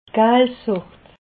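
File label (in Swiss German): "galsucht", Alsatian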